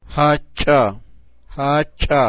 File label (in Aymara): "jacha", Aymara